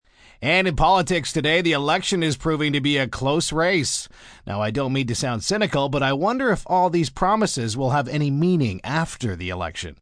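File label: News Election M, Broadcast, news, male news item on political election with humour.; male news item (2d) on political election with humour. (human voice speaking); VoiceBox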